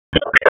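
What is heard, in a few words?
Raleigh Police Special Operations Tactical, p25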